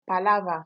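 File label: "palaver", Nigerian Pidgin, female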